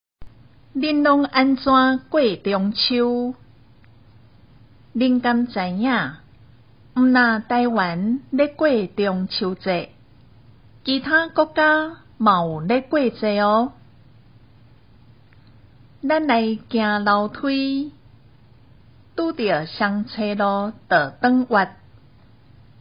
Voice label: 閩南語; 中秋節海報

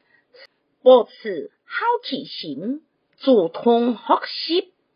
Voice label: (四縣)客語生活常規

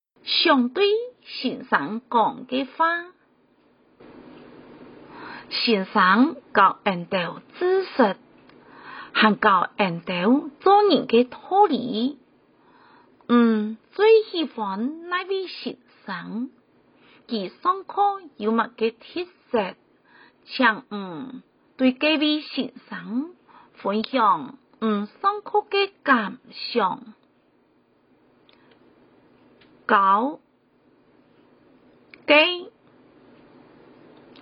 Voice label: 四縣腔

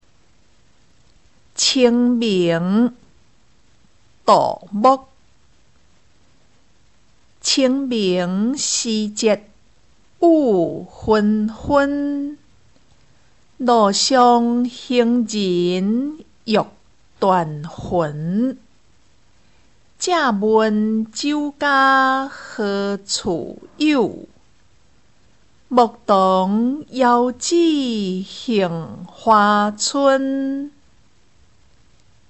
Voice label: 清明-唸詩

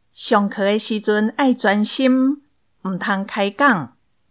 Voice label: 課室生活用語(台語)

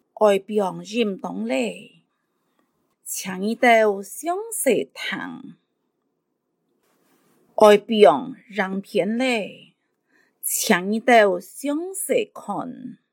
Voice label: 客語 課室生活用語 海陸腔

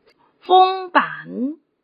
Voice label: 海陸 紅粄; 國小客語清明節語詞

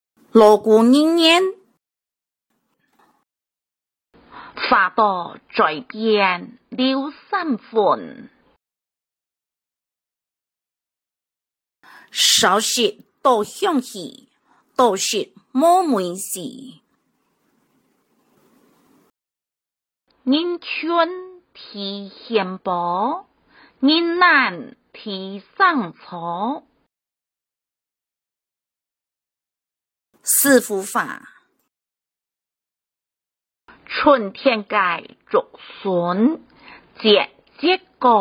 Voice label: 客語俗諺 海陸腔

